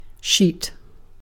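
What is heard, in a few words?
"sheet", British English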